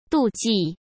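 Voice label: "妒忌", Mandarin Chinese; hsk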